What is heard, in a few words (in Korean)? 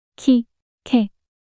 "키케" 낱소리 여자